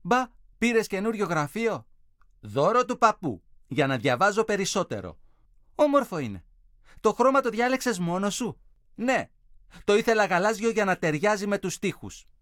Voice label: dialog